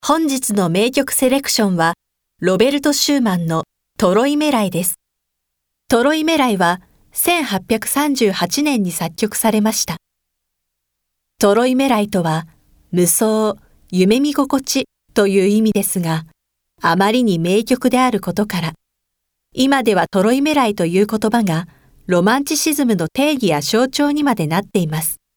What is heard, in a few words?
narration